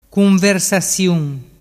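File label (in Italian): "cunversasiun", dialect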